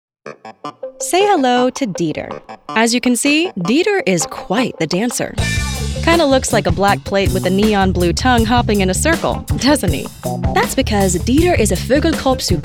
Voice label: Explainer